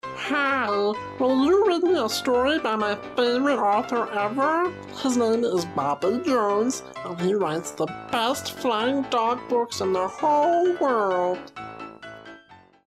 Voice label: Squeaky; And even the classic "squeaky" voice